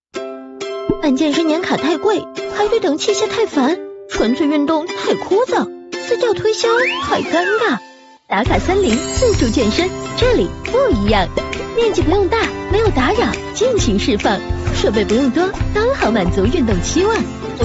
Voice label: 女 视频 MG风格 趣味广告

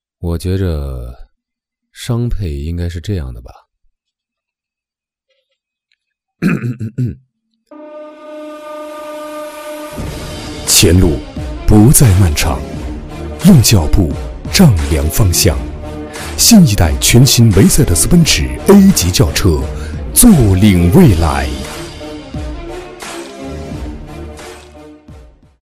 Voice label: 男 科技广告; 来一台奔驰

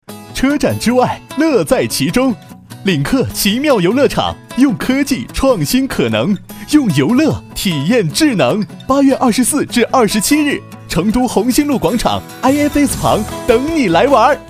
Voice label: 广告 车展; 领克

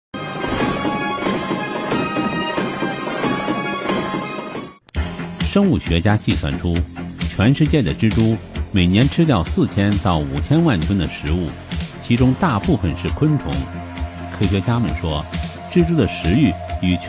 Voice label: 男 蜘蛛; 爱宠记

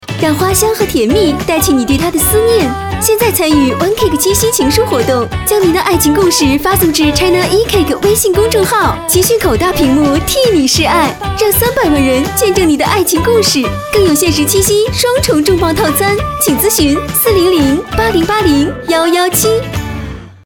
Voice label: 电台广告, 甜美欢快, 节日活动

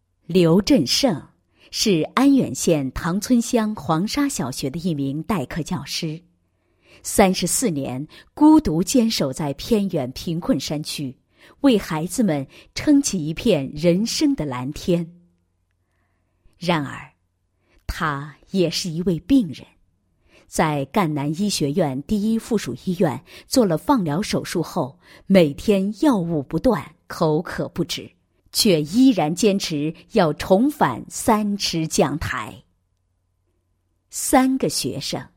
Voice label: 女 人物 大山里的乡村教师, 配音案例

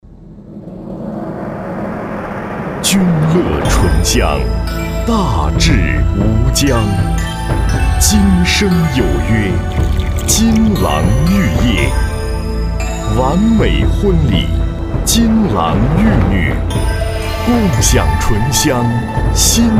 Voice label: 男80 广告 酒, 国语男声配音; 金郎玉液酒; 央视农广

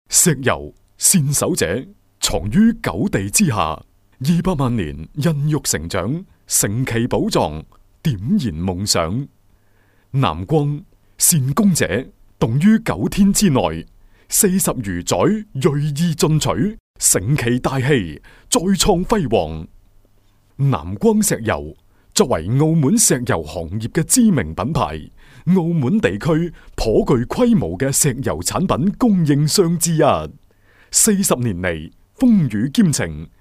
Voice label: 粤男; 南光石油

